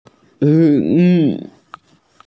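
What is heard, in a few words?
"zhi3", Mandarin Chinese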